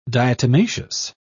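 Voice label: "diatomaceous", British English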